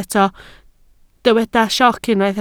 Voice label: "sioc", Welsh